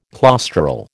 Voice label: "claustral", American

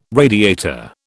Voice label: "radiator", British

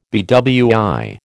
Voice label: "BWI", American

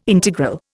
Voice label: "integral", Indian

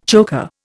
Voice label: "choker", Indian

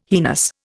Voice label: "heinous", Indian

